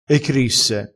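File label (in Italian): "ecrisse", vocabolario dialettale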